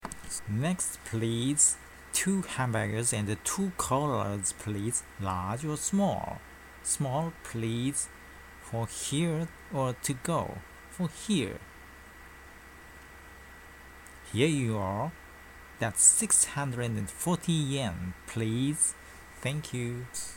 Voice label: read aloud